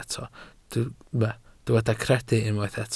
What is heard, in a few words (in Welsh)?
"credu" Welsh